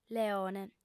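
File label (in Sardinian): "leone", Sardinian, female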